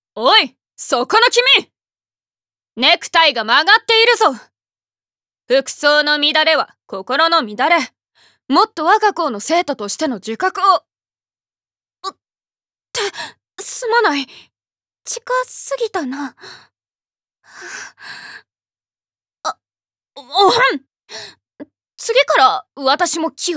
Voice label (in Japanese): 女子高生